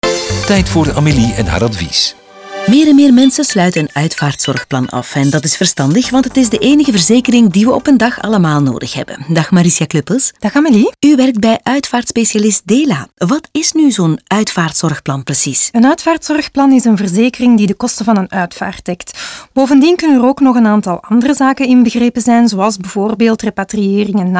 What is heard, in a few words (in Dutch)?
radiospot NL